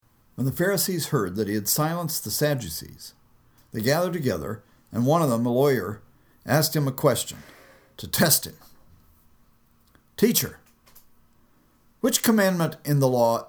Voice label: storytelling